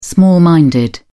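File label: "small minded", English, British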